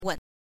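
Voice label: "wen", Mandarin Chinese, neutral tone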